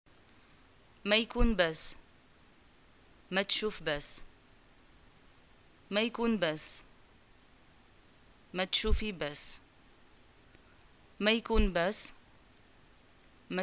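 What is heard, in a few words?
"greeting get well soon", Moroccan Arabic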